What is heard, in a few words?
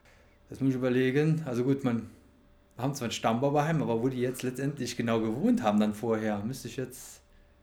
wittlich interview